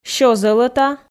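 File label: "сё зӧлӧта", Komi, female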